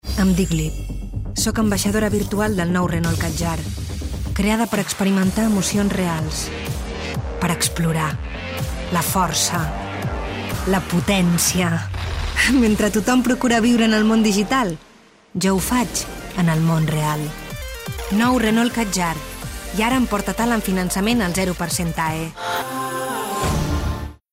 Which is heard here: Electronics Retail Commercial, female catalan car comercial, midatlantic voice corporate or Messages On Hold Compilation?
female catalan car comercial